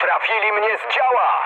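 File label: Polish damaged anti-aircraft mood medium; speech; War Thunder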